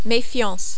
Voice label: "mefiance", French

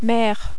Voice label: "mere", French

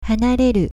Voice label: "hanareru", Japanese

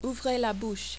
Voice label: "ouvrez la bouche", French

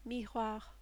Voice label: "miroir", French

